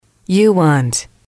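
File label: you want, English, female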